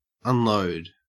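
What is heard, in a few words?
"unload", Australian English; pronunciations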